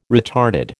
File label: "retarded", American